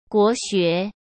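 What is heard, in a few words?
"国学", Mandarin Chinese; chinese flashcards; hsk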